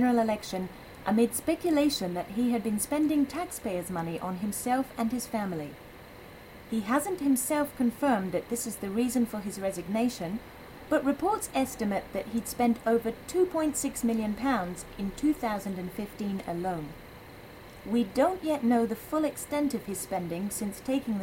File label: News Political and Sports